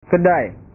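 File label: "kedai", Indonesian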